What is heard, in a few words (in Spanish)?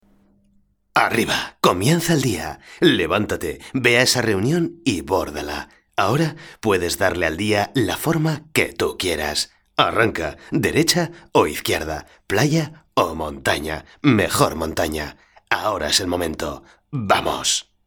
spanish spain male generic